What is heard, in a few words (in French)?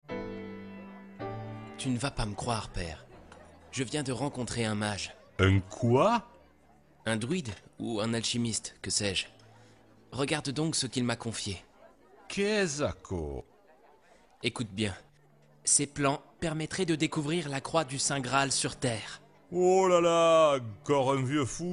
voix medium accent provencal Film animation